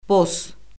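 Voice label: "boss", Azerbaijani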